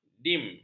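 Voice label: "dime", Malay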